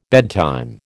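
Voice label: "bedtime", American English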